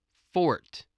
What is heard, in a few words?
"fort", English voice, spelling